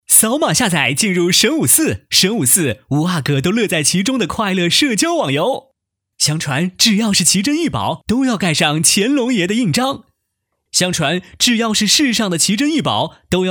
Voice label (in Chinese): 男 广告 激情动感; 神武4NEW